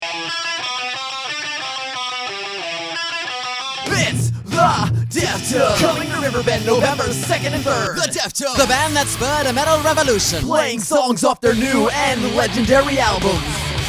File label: concert promo